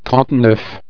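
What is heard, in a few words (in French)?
"trente neuf", French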